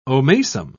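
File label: "omasum", English, British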